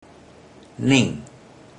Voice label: "nin4", Teochew; Pronouns